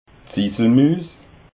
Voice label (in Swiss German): "ziselmuus", alsace